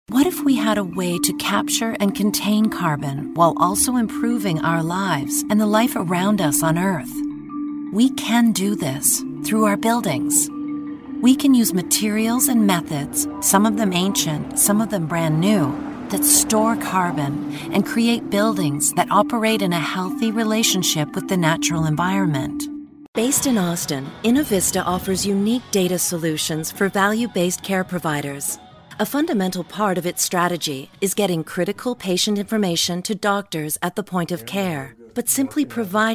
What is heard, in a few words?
english us and canada female narrative